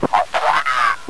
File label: ct reportingin, zombie male